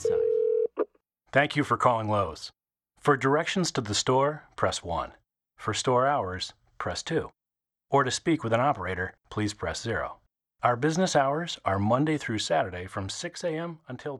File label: narration; lowes